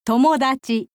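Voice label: "tomodachi", Japanese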